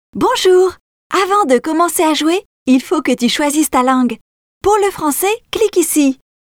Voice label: french video games